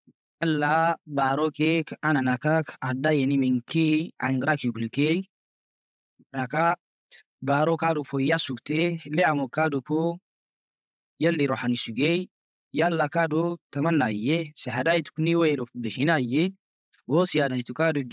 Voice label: Afar; Creation